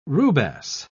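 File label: "rubasse", British English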